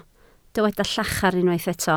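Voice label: "llachar" Welsh, spoken word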